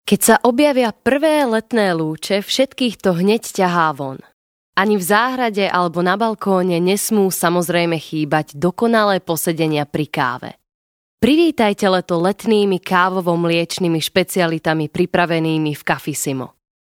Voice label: locutora eslovaca slovak voiceover

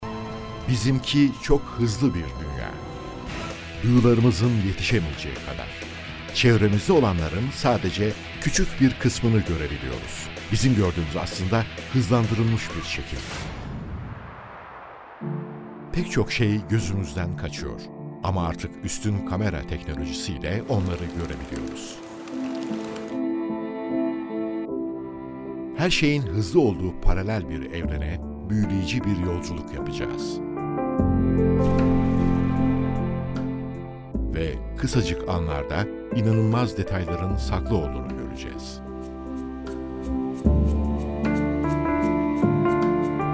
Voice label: locutor turco turkish voiceover

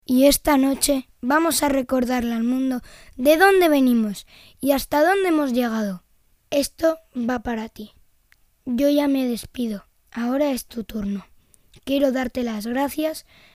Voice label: niño locutor Spanish child voiceover

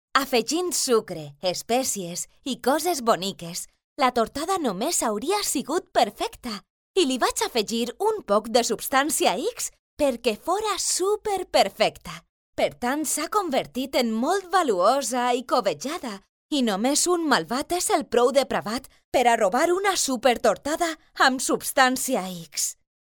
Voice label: locutora valenciano valencian voiceover

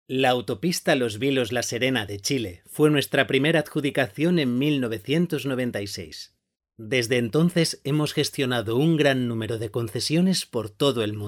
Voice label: locutor spanish voiceover

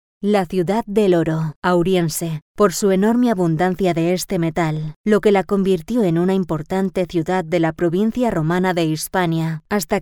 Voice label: locutora Spanish voiceover

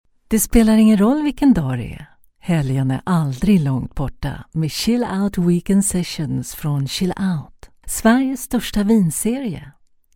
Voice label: swedish voiceover locutora sueca

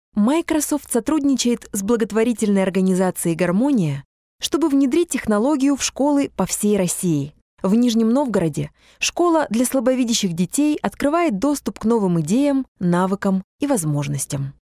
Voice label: russian female voiceover locutora rusa

